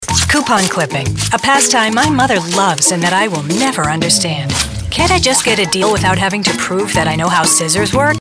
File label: Commercial, Coupon Clipping